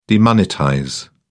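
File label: "demonetize", British English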